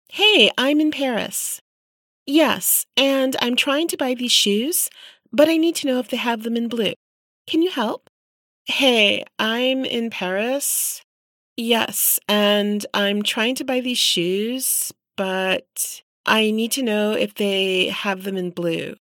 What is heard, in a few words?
Call Center Promo Caller